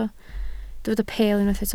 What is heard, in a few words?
"peel", Welsh